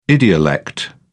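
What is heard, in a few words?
"idiolect", English, British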